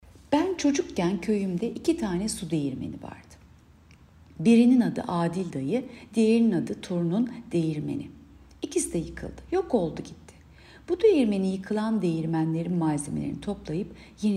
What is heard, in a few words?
turkce